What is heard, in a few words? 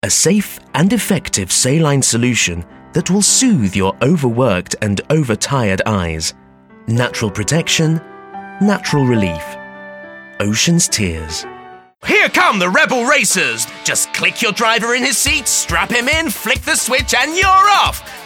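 British, TV commercial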